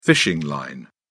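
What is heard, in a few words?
"fishing line", British English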